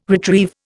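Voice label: "retrieve", Indian English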